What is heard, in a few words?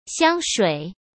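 "香水", Mandarin Chinese; chinese flashcards; hsk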